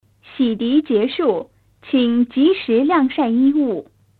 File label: 8k 4ADPCM voice